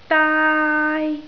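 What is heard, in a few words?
"daai1", Cantonese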